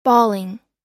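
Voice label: "bawling", English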